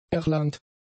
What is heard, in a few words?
"erlangt", German; adjectives